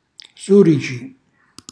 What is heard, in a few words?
"surici", Calabrese; cucina calabrese